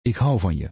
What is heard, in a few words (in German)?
Niederländisch, "Ich liebe dich"